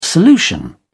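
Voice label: "solution", English, British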